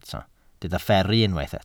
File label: "fferru", Welsh